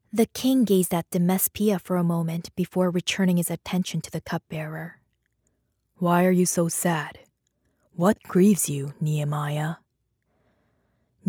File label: Audio Book Narration